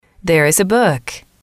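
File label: "there is a book", English, female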